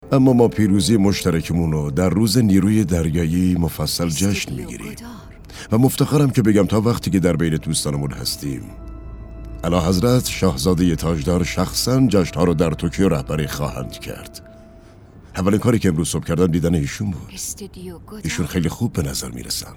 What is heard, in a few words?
dub